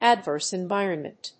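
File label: "adverse environment", English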